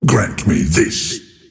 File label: lasthit pain; dota; earthshaker; arcana